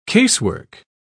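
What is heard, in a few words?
"casework", British English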